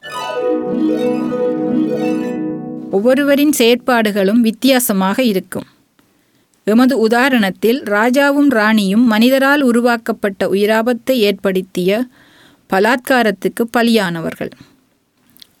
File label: Tamil